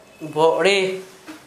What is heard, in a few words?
small measuring basket, Gta, Dialect: Hill; household